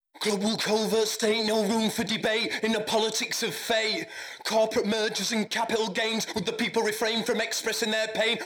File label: Vox Dist Phase Out